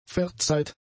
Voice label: "verzeiht", German; adjectives

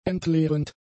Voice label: "entleerend", German; adjectives

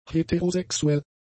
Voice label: "heterosexuell", German; adjectives

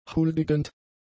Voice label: "huldigend", German; adjectives

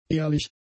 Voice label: "ehrlich", german; adjectives

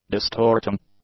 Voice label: "distorting", English; adjectives

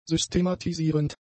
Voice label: "systematisierend", German; adjectives

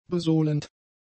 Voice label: "besohlend", german; adjectives

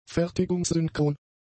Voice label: "fertigungssynchron", german; adjectives

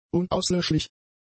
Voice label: "unauslöschlich", German; adjectives

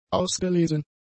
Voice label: "ausgelesen", German; adjectives

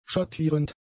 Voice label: "schattierend", german; adjectives

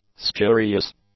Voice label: "spurious", english; adjectives

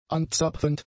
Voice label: "anzapfend", German; adjectives

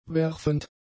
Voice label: "werfend", German; adjectives

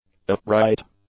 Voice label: "upright", english; adjectives

